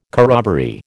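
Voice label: "corroboree", American English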